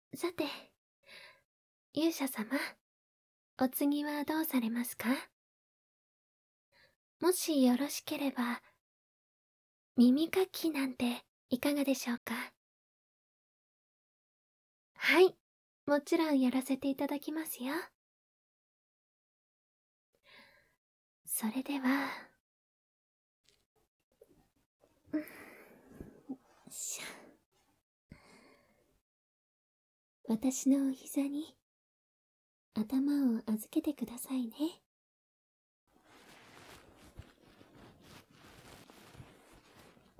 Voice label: 耳かきはいかがですか?, ASMR

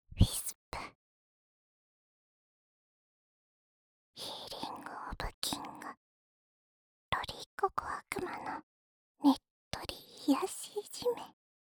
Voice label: タイトルコール, ASMR

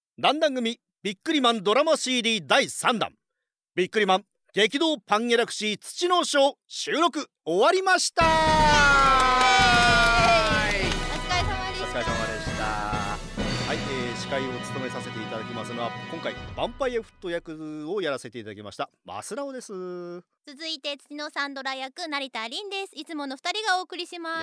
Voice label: オーディオコメンタリー; 土の章

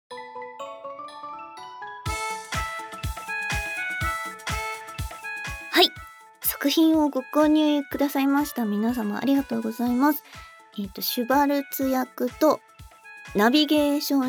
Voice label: フリートーク

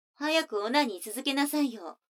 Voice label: 女の子 台詞, ASMR

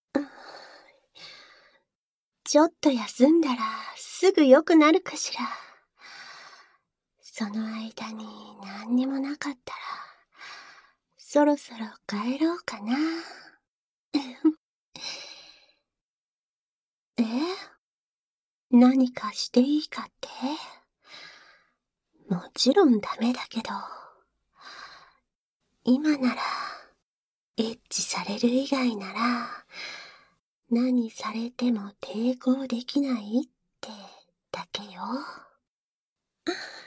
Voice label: バイノーラルマイク収録 効果音なし; そのまま頼み込んで童貞卒業…?

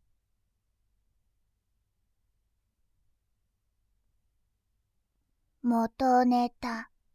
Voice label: 「元ネタ」, ASMR

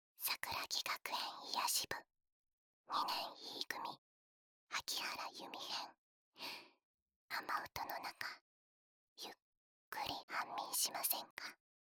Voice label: タイトルコール

